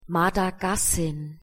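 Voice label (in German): "madagassin", German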